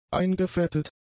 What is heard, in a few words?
"eingefettet", German; adjectives